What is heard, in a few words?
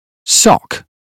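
"sock", British phonetics; 英语音标发音（剑桥版）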